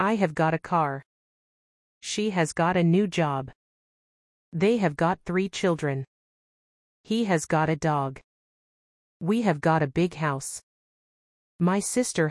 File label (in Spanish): gramatica have got has got ingles